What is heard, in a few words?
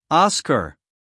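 "Oscar", American English, guy; sounds-of-american-english; everyone-can-use-english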